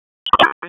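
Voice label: fire department dispatch, p25; wake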